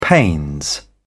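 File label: "pains", British English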